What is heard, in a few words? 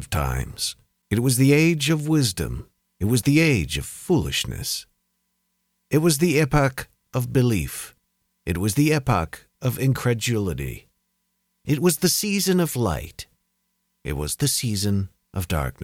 Audiobook Fiction